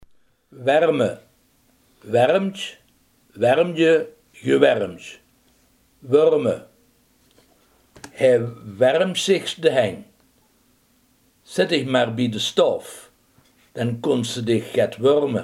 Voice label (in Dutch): "warmen", limburgse